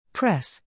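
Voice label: "press", English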